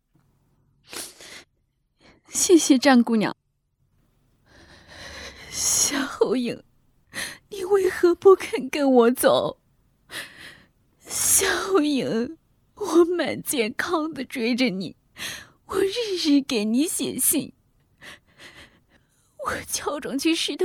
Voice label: 角色音失恋

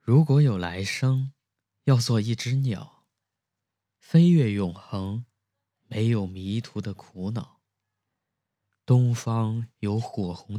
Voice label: 情感类

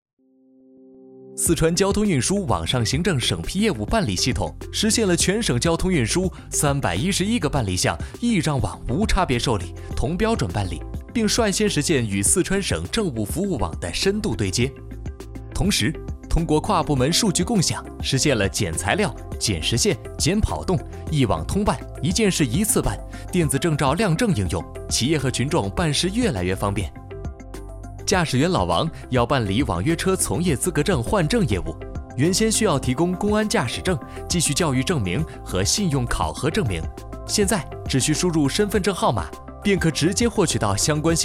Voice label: 商配活力

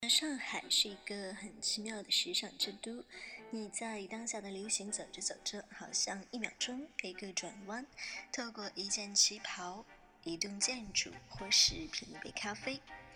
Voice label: 台湾腔配音

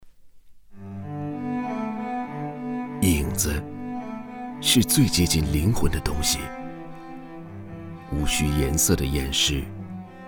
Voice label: 深沉类

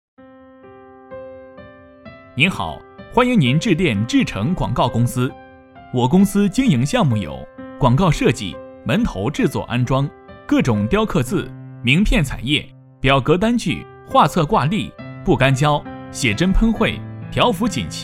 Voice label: 彩铃

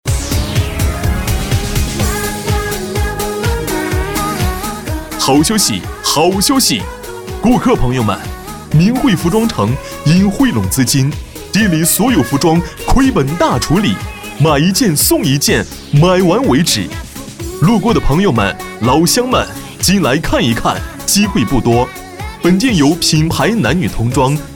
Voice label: 促销

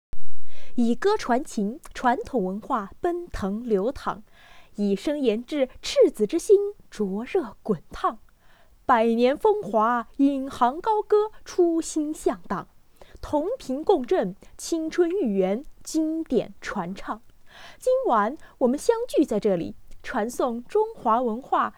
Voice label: 播音主持大气沉稳

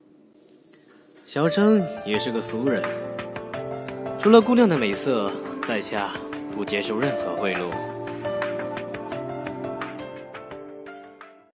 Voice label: 公子音

